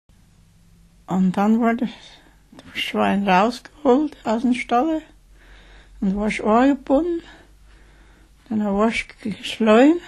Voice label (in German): Lausitzisch; Mitteldeutsch